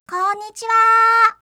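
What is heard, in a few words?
"konnichiwa", Japanese; aisatsu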